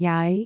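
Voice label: "jaai5", Cantonese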